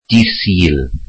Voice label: welsh Sunday

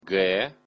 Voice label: belarusian alphabet g

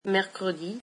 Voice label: french Wednesday

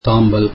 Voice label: tamil grey

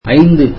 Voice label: tamil five

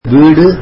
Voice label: tamil house

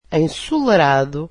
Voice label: portuguese sunny

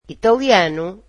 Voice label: "Italian", Portuguese

